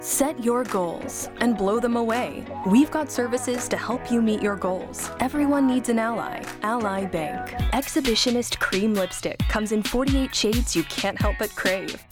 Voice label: Confident Corporate Dynamic